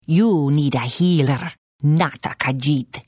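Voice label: Hello, Khajiit female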